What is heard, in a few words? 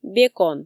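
"бекон" Komi, female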